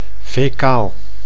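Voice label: "faecaal", Dutch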